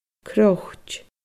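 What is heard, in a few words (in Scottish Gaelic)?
"croit", Scottish Gaelic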